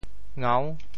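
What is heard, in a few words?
"ngau6", Teochew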